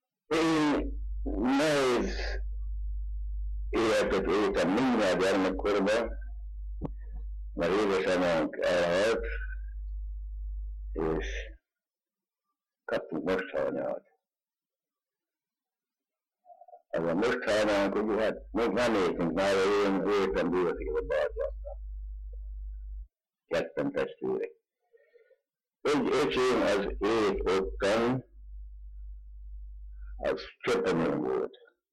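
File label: dialect collection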